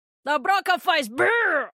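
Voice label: Portuguese; 探奇（守望先锋2）/终极技能; A broca faz brrrr!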